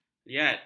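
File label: "liat", Malay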